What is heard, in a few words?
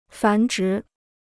"繁殖", Chinese